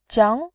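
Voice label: "jiang", Mandarin Chinese, second tone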